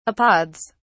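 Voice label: "apods", British English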